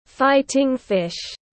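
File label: "Fighting-fish", English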